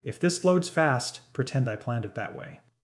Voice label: Relaxed and understated, good for natural narration that should not feel overproduced.; relaxedunderstatednatural